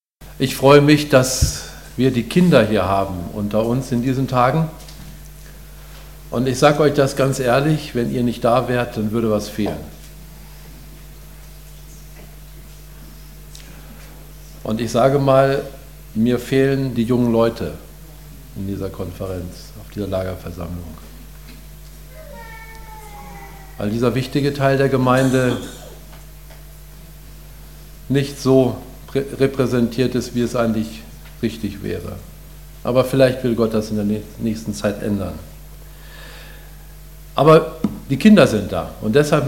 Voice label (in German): Ansage